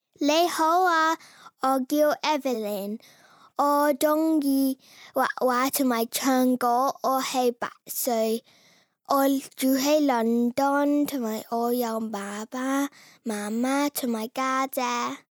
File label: Chinese Intro